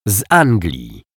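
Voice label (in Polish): "z Anglii", Polish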